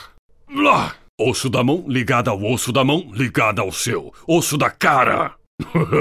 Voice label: voice portugues brasileiro brazilian portuguese; sion; league of legends